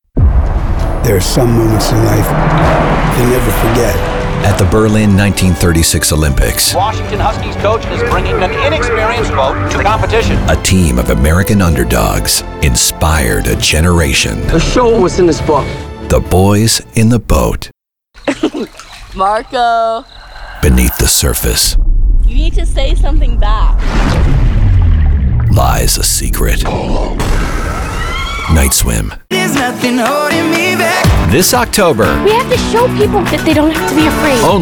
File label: Movie Trailer